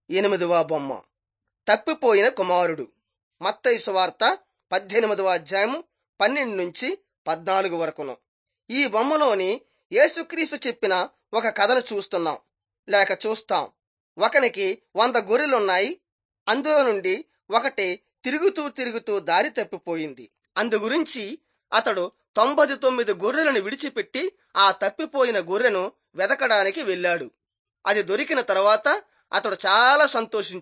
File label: telugu bangladesh; jesus teacher and healer